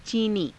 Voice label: "ciinii", Hindi